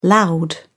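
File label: "loud", British English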